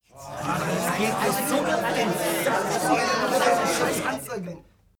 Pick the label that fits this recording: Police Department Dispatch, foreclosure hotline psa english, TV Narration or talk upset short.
talk upset short